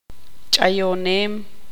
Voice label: tzutujil; golpear

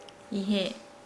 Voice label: "where", Nukuoro

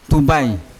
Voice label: "tubai" to grow, Yawa

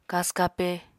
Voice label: "kasw-kape", Apatani

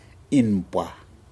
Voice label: fish black Tail Snapper, Aneityum

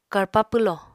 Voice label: "karpa pwlò", Apatani

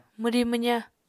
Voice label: "mwri-mwza", Apatani

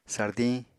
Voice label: "sardiñ", apatani

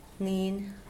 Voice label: teeth, Mokilese

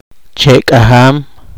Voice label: poqomchi; naturaleza

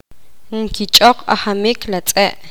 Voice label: poqomam

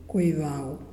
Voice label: "new", Muyuw; Lae Unitech

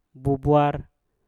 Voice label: "bubwar", Mpur; terbang

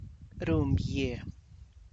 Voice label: "ties a knot", tlacochahuaya

